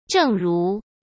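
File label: "正如", Mandarin Chinese; chinese flashcards; hsk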